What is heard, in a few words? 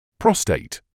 "prostate", English